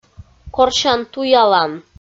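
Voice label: "корсян-туялан", Komi, female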